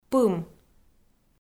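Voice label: "пым", Komi, female; kpv audio dict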